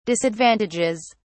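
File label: "disadvantages", British English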